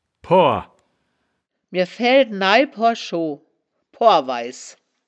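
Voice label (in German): "poar", Wittlicher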